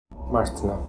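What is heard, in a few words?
"Martna", pronunciations; Fourth Way; Beelzebub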